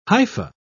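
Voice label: "hypha", British English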